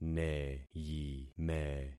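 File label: "neyime", concatenated syllables; Affixing experiment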